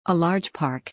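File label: "a large park", English pronunciation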